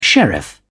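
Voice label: "sheriff", British English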